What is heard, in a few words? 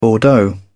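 "bordeaux", British English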